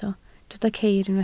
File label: "ceir", Welsh